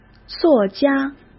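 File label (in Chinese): "作家", Mandarin Chinese; hsk; chinese-flashcards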